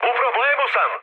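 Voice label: Serbian aircraft damaged mood high, speech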